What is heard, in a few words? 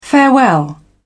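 "farewell", British English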